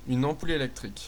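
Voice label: Lightbulb, French; household; tuxpaint-stamps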